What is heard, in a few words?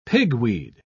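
"pigweed", British English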